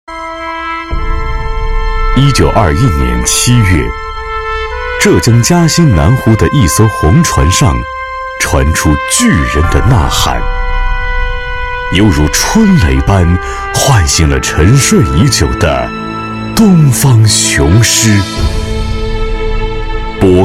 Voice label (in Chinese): 男 纪录片 历史记录讲述大气